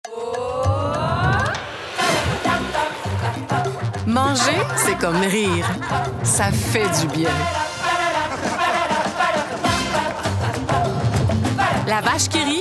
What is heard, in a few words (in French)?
annonceur